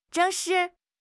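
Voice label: "zheng4 shi4", Mandarin Chinese; HSK; HSK4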